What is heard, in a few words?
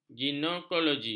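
"ginekologi", Malay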